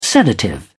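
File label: "sedative", British English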